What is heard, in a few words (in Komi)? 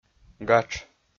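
"гатш", Komi-Zyrian, male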